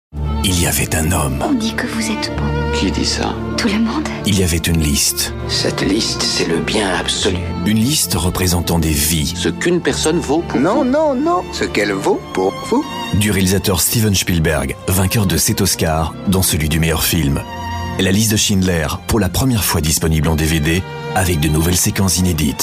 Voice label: belgian french voiceover belgian french promo trail